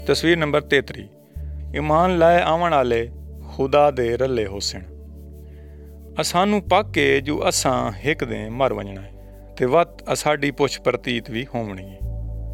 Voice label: saraiki jangli good news; picture 33 if we sin